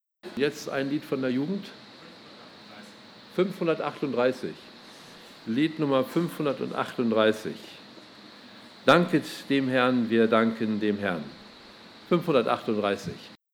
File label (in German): Ansage; Lagerversammlung 2018